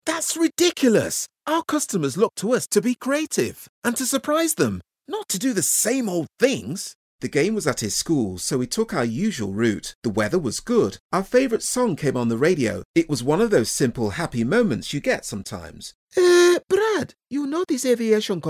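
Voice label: English UK, male, e-learning conversational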